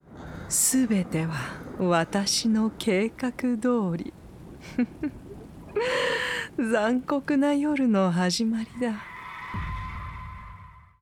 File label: foreigner; 魔女（日本語）